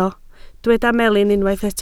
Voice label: "melin", Welsh